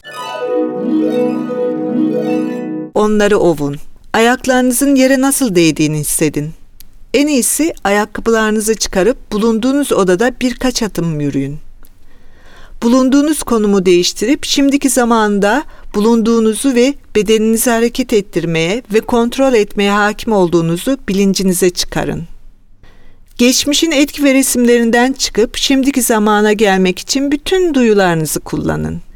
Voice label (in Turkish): Türkçe; NAWA